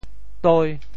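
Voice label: "toi5", Teochew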